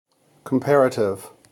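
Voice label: "comparative", American English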